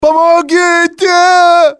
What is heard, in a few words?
"help", male; XBOX; civ